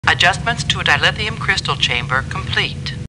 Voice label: Star Trek Computer Voice; StarTrekLCAR